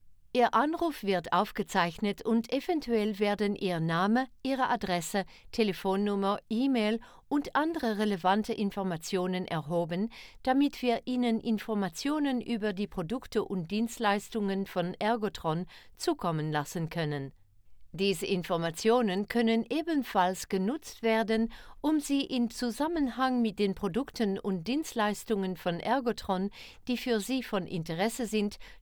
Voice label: IVR DE